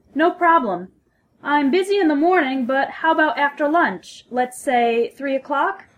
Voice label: phone formal; telephone english making an appointment; This conversation is an example of formal telephone English.